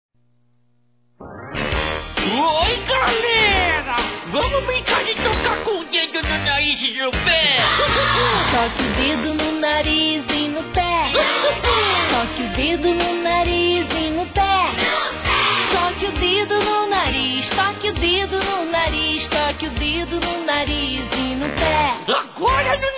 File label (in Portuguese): Aniversário Sobrinho Masculino; Telemensagens 2017; Aniversário Infantil